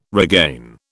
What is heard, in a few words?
"regain", British